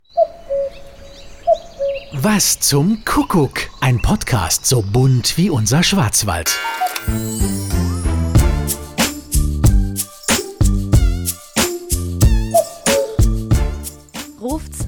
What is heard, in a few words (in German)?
Trailer; Was zum Kuckuck